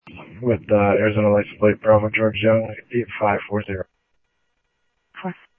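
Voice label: police department, scanner audio; PHOENIX PD-200 A; ThompsonPeakHigh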